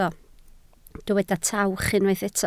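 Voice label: "tawch" Welsh, spoken word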